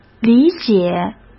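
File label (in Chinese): "理解", Mandarin Chinese; hsk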